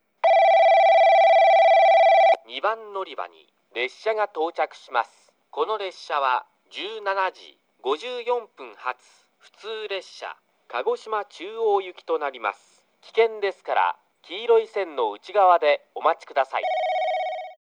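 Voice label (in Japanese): 2番のりば接近放送（折り返し・鹿児島中央行き）, 男声放送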